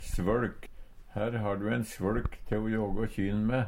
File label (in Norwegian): svøLk, Numedalsmål; Substantiv hankjønn